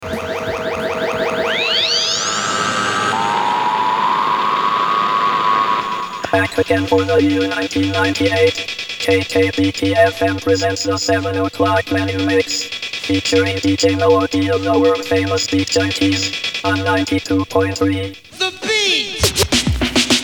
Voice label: Radio ID